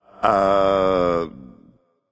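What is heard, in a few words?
"seriously", male; smite; voicepack; olorun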